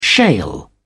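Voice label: "shale", British English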